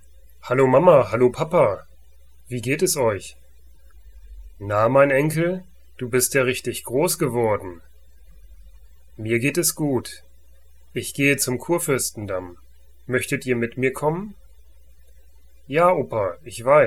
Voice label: German Dialogue - Visiting Family